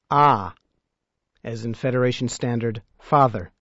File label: Letter a, as in father, Klingon